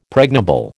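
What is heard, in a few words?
"pregnable", American English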